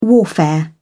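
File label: "warfare", English, British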